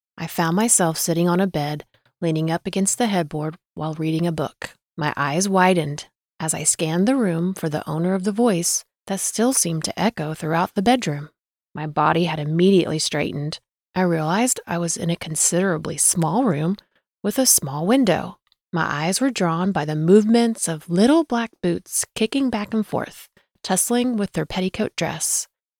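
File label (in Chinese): 外籍女声 日常口语 美式英语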